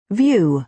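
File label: "view", American English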